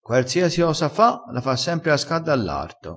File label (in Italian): "fa casca le ose", dialettale crespinese